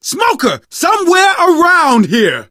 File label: heard smoker, survivor voice; source engine; left 4 dead 2; manager